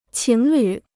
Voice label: "情侣", Chinese

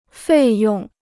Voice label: "费用", Chinese

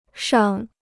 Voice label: "尚", Chinese

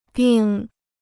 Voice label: "病", Chinese

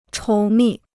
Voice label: "稠密", Chinese

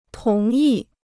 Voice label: "同意", Chinese

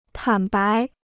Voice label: "坦白", Chinese

